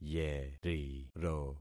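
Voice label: "yeriro", concatenated syllables; Affixing experiment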